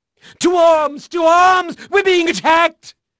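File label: English male; Pirates Of The Caribbean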